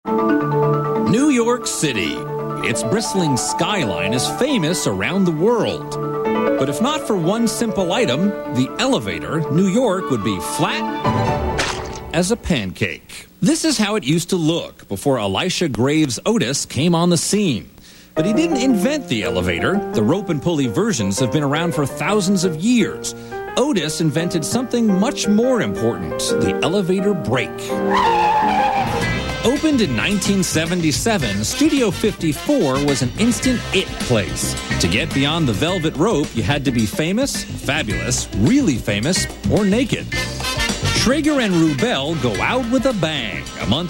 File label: NARRATION, American Voice Over; HBO Family